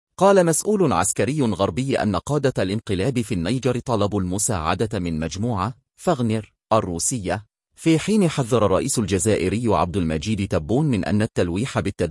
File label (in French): Voix off; Une justice surchargée : lenteur d’un système judiciaire qui peine à se réformer